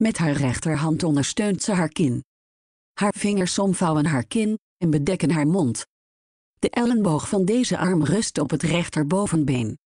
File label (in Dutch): vrouw